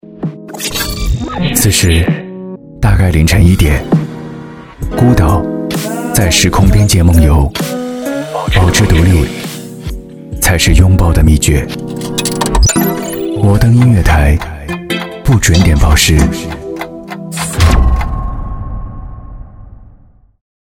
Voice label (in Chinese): 报时; 报时点